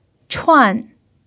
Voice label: "chuan4", Mandarin pinyin

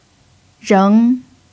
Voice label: "reng2", pinyin

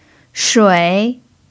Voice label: "shui3", pinyin

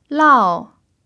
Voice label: "lao4", Mandarin pinyin

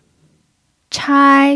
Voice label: "chai1", pinyin

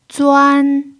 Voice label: "zuan1", Mandarin pinyin

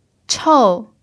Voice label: "chou4", Mandarin pinyin

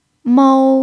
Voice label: "mou1", Mandarin pinyin

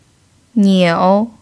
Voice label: "niu3", Mandarin pinyin

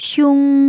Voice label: "xiong1", Mandarin pinyin

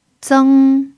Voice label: "zeng1", Mandarin pinyin

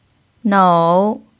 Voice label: "nou3", Mandarin pinyin

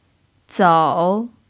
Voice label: "zou3", Mandarin pinyin

